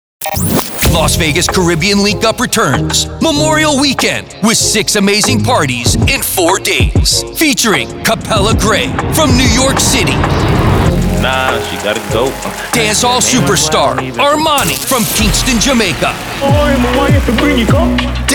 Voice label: Promo Ad, Commercial Voiceovers; Las Vegas Caribbean Link Up